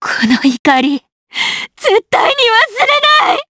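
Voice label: Vox Skill, Japanese; kingsraid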